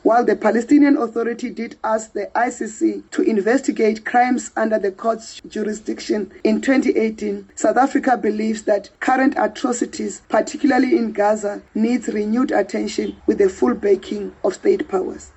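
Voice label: English; Gaza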